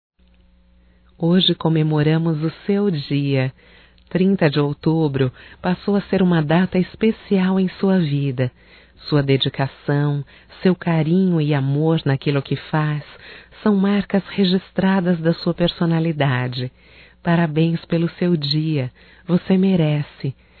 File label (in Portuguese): Dia Balconista Masculino Feminino, Telemensagens, Só Voz; DATAS COMEMORATIVAS